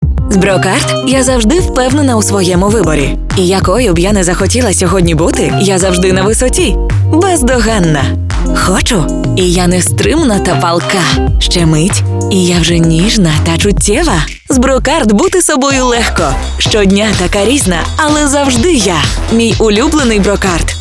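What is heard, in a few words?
UKR, Ukrainian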